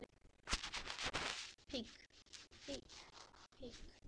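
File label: "pick", spoken word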